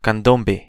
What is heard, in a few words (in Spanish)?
"candombe", espanhol; estilos musicais em espanhol